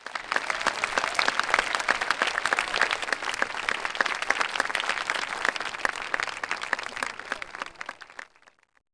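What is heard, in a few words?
"out", spoken; wesole przedszkole bolka i lolka; olimpiada letnia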